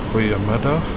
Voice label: good afternoon, Afrikaans